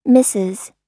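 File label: "misses", American English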